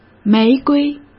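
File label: "玫瑰", Mandarin Chinese; chinese flashcards; hsk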